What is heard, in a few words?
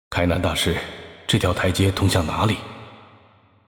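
voice over, Chinese; Xin Zhao; game outro; bloom minigame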